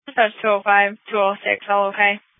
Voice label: Police Dispatch